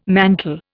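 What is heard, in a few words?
"mantel", Indian English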